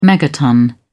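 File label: "megaton", British English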